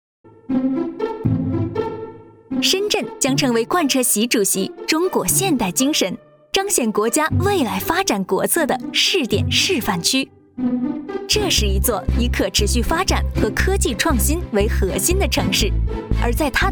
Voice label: chinese mandarin promos

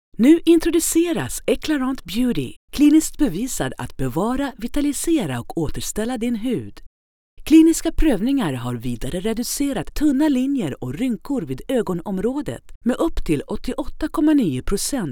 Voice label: Swedish commercial

